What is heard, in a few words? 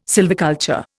"sylviculture", Indian